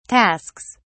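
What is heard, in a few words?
"tasks", British English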